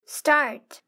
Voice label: "start", English; grade3; fun with sentence